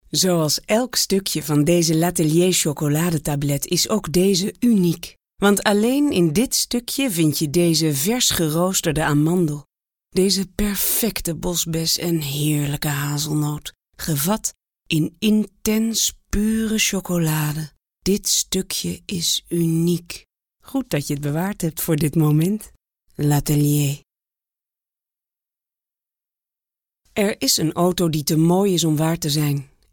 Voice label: warm